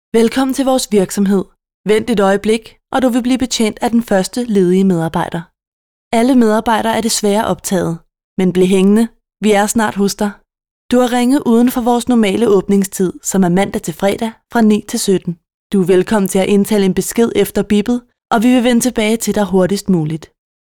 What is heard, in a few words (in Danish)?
Neutral kvinde, Speak; indtalinger